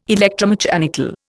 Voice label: "electromechanical", Indian